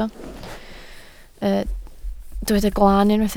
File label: "glaan", Welsh